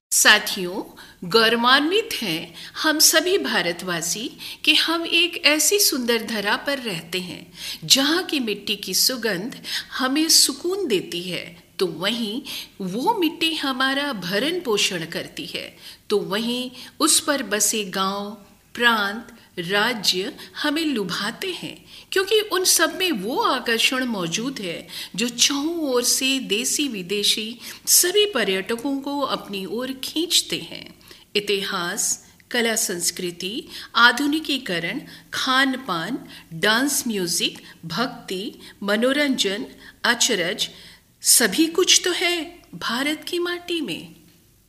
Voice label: Hindi Female